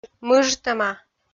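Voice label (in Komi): "мыжтӧма", Komi, female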